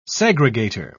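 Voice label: "segregator", British English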